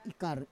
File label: "ikar", Roon